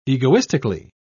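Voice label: "egoistically", British English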